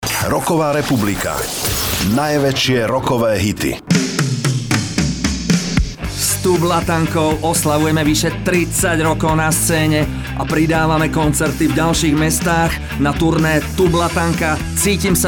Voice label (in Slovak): SPOT; MMC BA